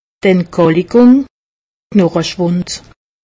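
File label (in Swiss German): "entkalkung", Alsatian; medecine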